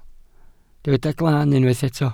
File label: "glan", Welsh